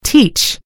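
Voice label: "teach", American English; gcompris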